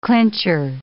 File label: "clincher", English